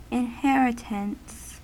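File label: "inheritance", US English; pronunciations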